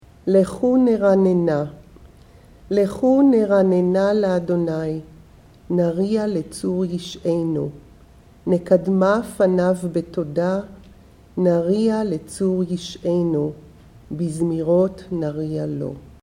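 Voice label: L'chu N'rann'nah, female; Shabbat Evening Readings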